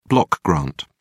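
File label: "block grant", British English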